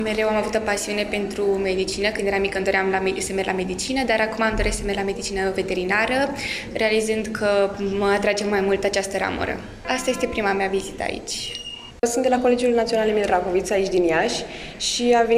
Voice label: vox elevi; scoala de vara dedicata viitorilor studenti la usv iasi

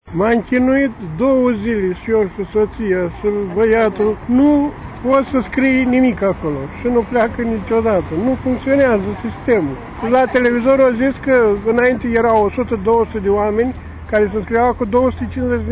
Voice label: vox pop

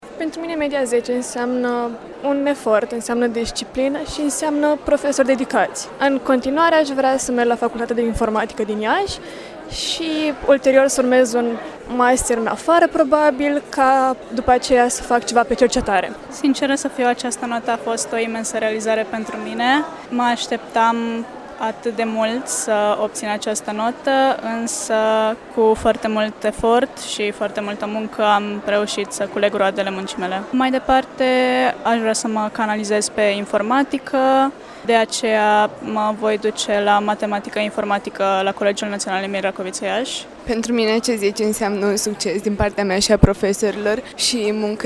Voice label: vox elevi

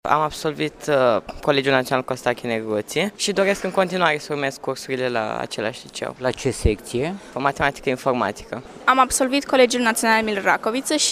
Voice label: vox pop absolventi a 8 a; iasi distinctii pentru elevii care au obtinut media 10 la absolvire

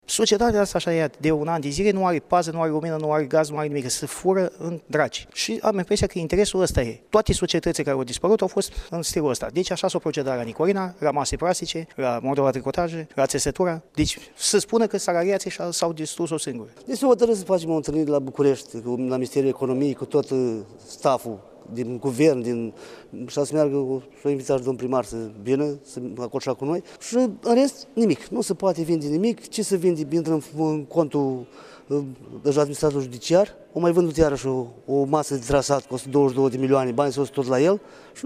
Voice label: vox pop; angajatii societatii fortus iasi continua protestele

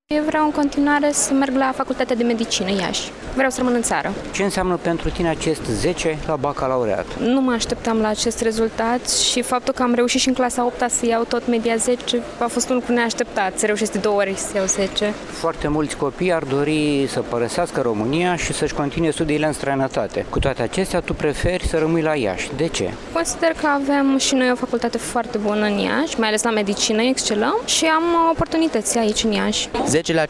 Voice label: Vox pop bac; au obtinut media 10 la evaluarea nationala si examenul de bacalaureat

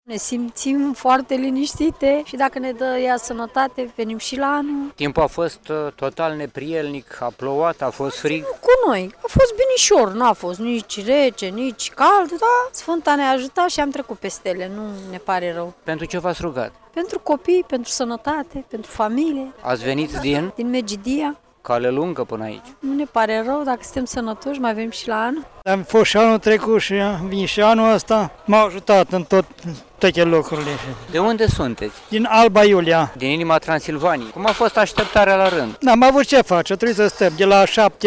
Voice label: vox pop pelerini; iasi hramul sfintei cuvioase parascheva

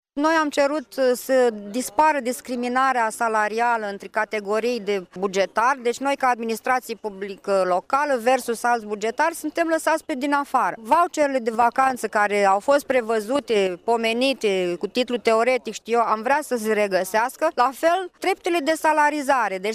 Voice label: vox pop; CJ Iasi; protestul salariatilor de la consiliul judetean iasi

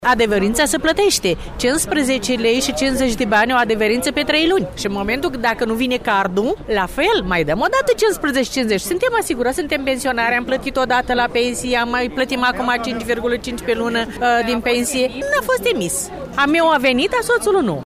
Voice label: vox pop; CJAS; aglomeratie la cjas iasi

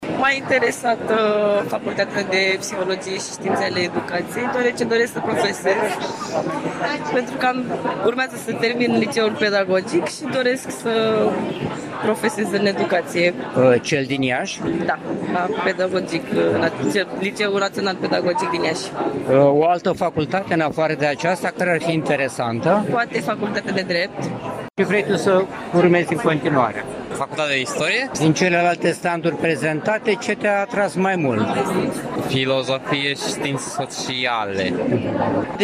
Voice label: vox elevi

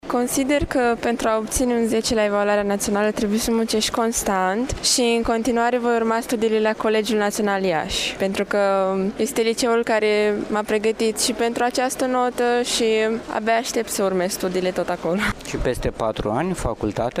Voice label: Vox pop absolventi; au obtinut media 10 la evaluarea nationala si examenul de bacalaureat

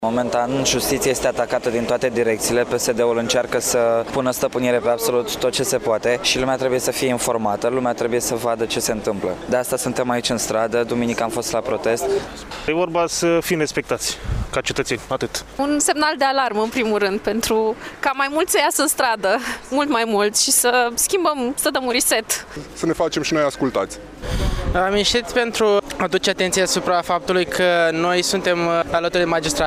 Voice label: vox pop; iasi protestele procurorilor continua

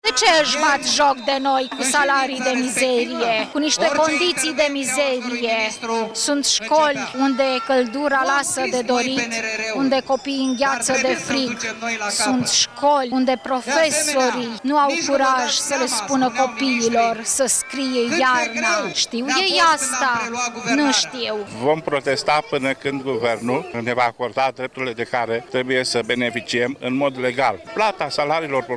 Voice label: vox pop cadre didactice; de profesori au participat astazi la vaslui la un miting de protest

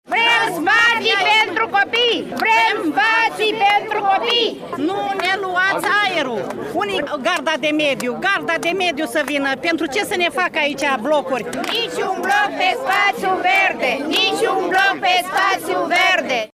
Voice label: vox pop protest; tatarasi cetatenii se opun constructiei a trei blocuri de locuinte

